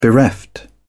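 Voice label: "bereft", English, British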